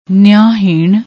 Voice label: "neeaheen", Punjabi

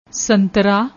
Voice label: "santra", Punjabi

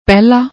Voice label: "pehla", Punjabi

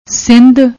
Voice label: "sindh", Punjabi; ਸਿੰਧ

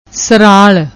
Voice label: "sral", Punjabi; ਸਰਾਲ

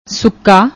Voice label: "sukka", Punjabi

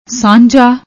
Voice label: "sanjha", Punjabi; ਸਾਂਝਾ

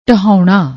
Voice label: "ਢਹਾਉਣਾ", Punjabi

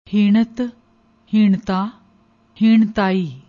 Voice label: "hinnat", Punjabi; ਹੀਣਤ

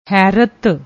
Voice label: "hairt", Punjabi; ਹੈਰਤ

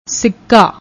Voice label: "sikka", Punjabi; ਸਿੱਕਾ

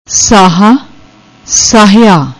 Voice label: "saaha", Punjabi; ਸਾਹਾ

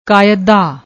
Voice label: "kaaeada", Punjabi

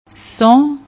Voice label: "sahun", Punjabi

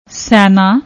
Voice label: "sena", Punjabi

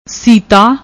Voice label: "seeta", Punjabi; ਸੀਤਾ

